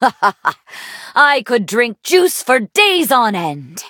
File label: levelup en; Cookie Run